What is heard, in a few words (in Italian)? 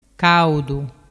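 "caudu", dialect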